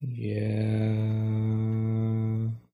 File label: "yeah" long, voice